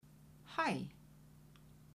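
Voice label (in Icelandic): "hæ", kvedjur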